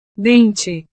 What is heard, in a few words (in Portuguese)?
"dente", portugues